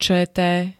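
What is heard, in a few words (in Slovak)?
"čt", slovenské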